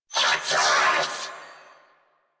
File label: Attack, Female; en; Warframe; New War; Narmer Grineer